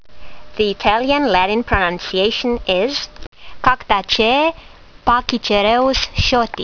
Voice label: latin; Pachycereus schottii